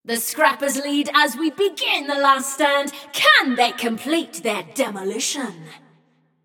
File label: Scrappers Lead, Announcer; Flux; Scraprun